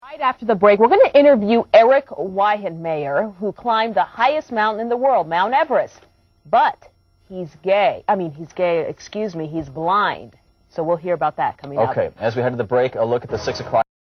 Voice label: news anchor fail

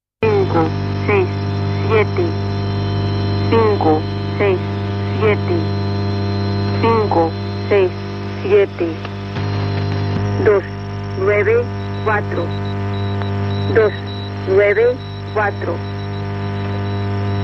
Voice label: spanish lady 00000 ending; irdial

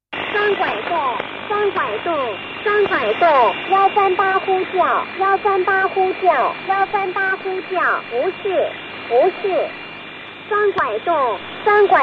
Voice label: unidentified chinese station; irdial

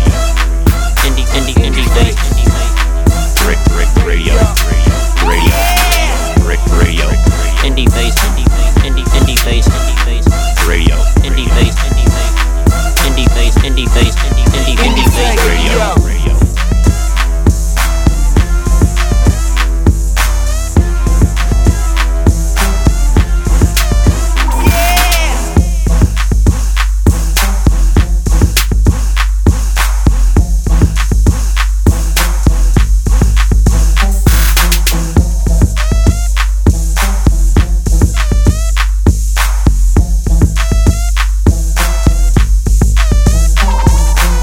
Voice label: Radio Intro; IndieFaceRadio

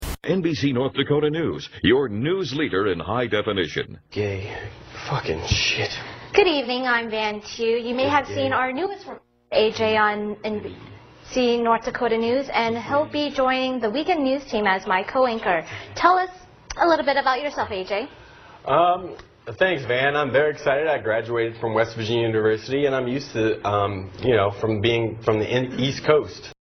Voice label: news anchor fail

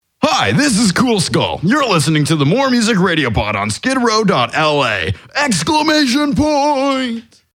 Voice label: Radio Guy Promo

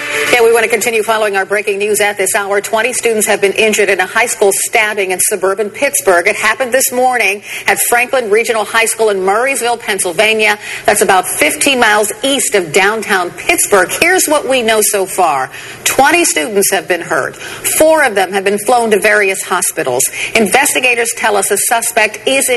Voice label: Stabbing news

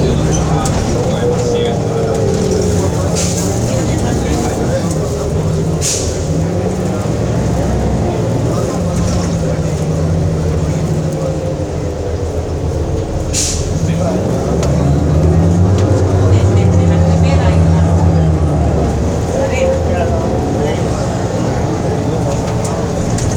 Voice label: Passenger chatter English

